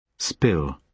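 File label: "spill" verb, British English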